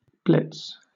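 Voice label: "blitz", English, British accent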